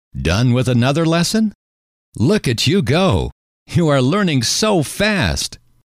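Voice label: done With Another Lesson Look At You Go You Are Learning So Fast, male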